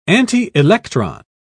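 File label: "antielectron", British English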